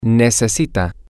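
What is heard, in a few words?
you need, spanish, male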